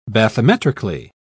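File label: "bathymetrically", British English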